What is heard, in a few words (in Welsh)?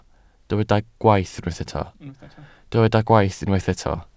"gwaith" Welsh